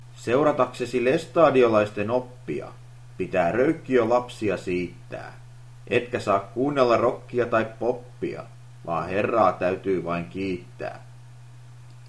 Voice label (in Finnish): lestadiolaisuus, poetry